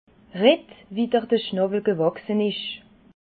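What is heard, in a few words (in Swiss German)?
"schnawel", Alsatian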